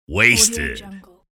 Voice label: announcer saying wasted